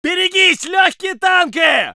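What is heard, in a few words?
voice soviet infantry; Red Orchestra 41-45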